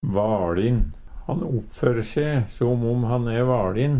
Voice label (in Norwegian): valin, Numedalsmål; Han oppføre se som om han e vaLin.; Adjektiv